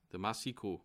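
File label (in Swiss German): "massicot", Bas Rhin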